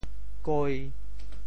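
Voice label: "koi5", Teochew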